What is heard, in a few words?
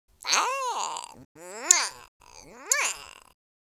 happy, speech; Worms3D; Spanish Inquisition